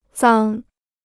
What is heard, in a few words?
"脏", Chinese